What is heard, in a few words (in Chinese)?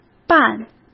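"伴", Mandarin Chinese; hsk; chinese-flashcards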